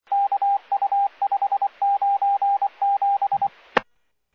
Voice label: Medevac, scanner; NativeAirAmb